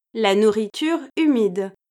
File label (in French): "la nourriture", French